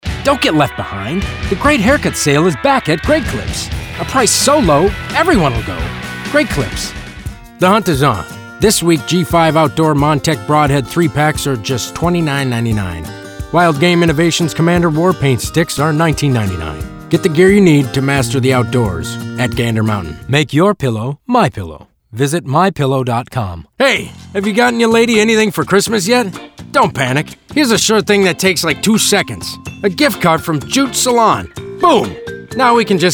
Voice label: Commercial